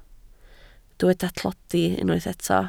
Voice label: "tlodi", Welsh